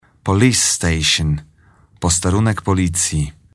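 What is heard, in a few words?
"police station", English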